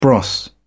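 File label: "bros.", British English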